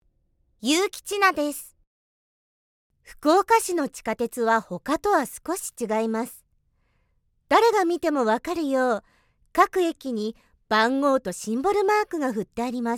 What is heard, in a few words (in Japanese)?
ストレートナレーション